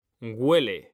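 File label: "huele", Spanish, male; mekanta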